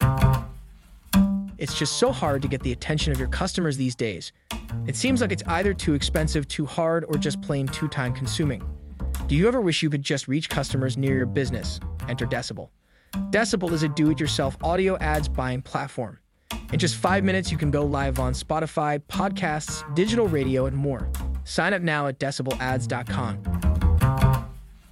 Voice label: ad voice with music